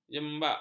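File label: "jembak", Malay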